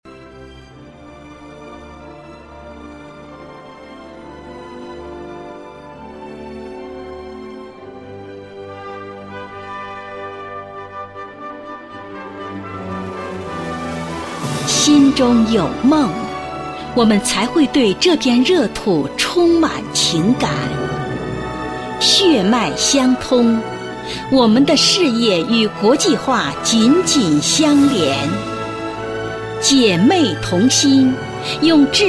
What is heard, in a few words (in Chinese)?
女 专题, 国语女声配音, 大气抒情; 长宁区妇联